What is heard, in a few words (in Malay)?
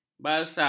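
"balsam", Malay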